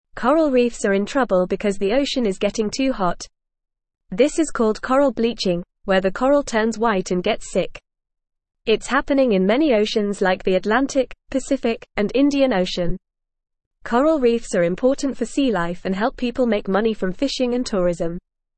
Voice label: English FAST Reading; Hot Ocean is Making Coral Reefs Sick